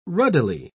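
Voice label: "ruddily", English, British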